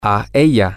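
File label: to Her, Spanish, male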